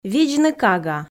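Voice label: видзны кага, Komi, female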